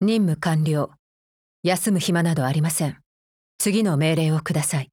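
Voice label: win, Japanese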